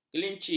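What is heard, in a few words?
"kelinci", Malay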